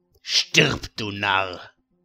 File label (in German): Narr